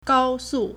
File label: "gao1 su4", Chinese